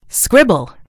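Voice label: "scribble", British English; gcompris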